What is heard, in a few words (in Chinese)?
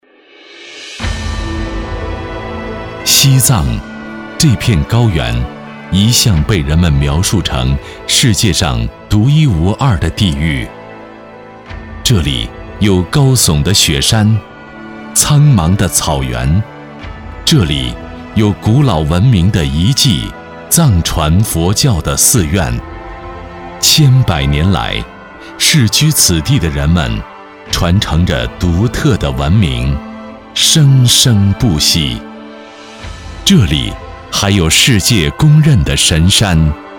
男 宣传片 西藏 大气磁性